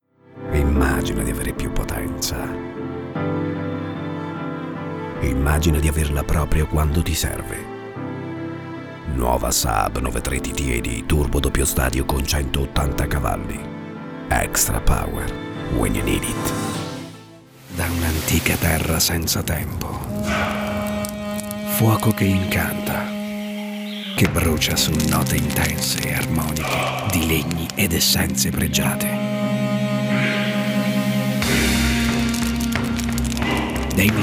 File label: italian commercial